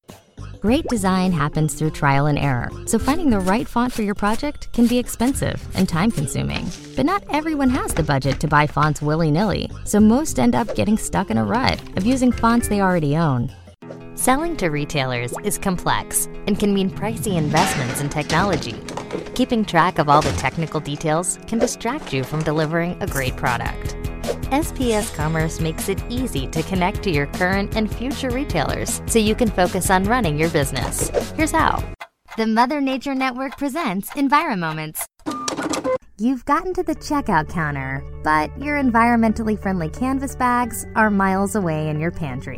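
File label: female English US narration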